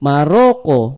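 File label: moroco, Indonesian; gcompris-data; geography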